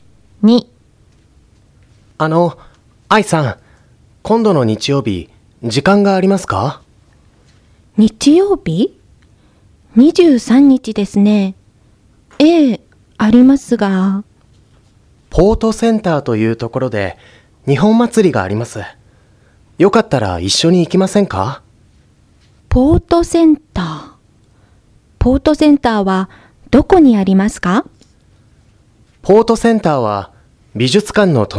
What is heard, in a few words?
Conversation